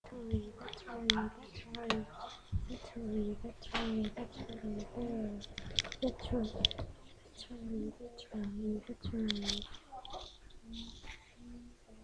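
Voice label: "battery", spoken word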